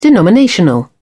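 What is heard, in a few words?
"denominational", British English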